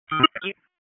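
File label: EMS Dispatch, p25; wake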